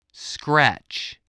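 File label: "scratch", English word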